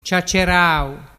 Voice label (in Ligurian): "ciaccerau", dialettu